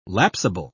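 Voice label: "lapsable", British English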